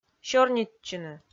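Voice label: "сёрнитчыны", Komi, female; kpv audio dict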